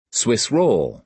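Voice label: "swiss roll", American English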